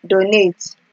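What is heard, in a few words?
"donate", Nigerian Pidgin, female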